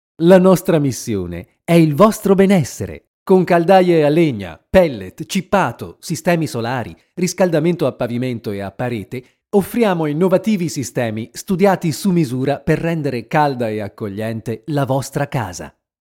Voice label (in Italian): spot radio